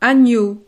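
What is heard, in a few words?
"agneau", French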